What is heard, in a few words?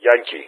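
"Yankee", spoken; Ka-50; Alphabet; Yankee-continue; Speech; DCS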